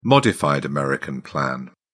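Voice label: "modified american plan", British English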